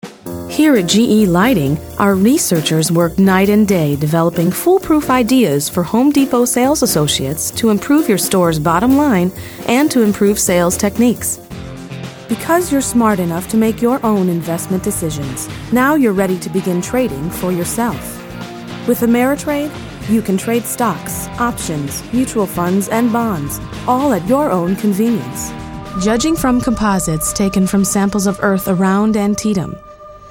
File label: narrative